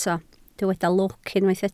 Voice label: "lwc", Welsh